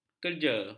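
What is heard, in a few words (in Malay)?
"kerja", Malay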